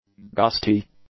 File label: "gusty", english; adjectives